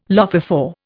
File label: "lophophore", Indian